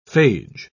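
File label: "phage", English, British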